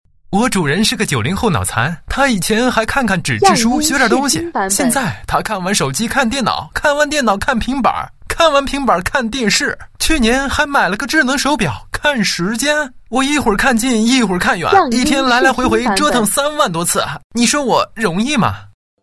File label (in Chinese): 男 自白; 爱赞眼镜 眼睛的自白